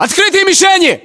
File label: threat infantry exposed, battlechatter ru